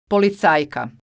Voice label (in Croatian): "policajka", croatian